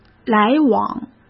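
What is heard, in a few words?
"来往", Mandarin Chinese; chinese-flashcards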